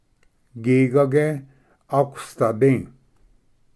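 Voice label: "gigage aquasdadi", Cherokee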